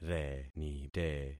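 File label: "renite", concatenated syllables; Affixing experiment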